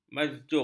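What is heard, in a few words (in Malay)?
"majzub", Malay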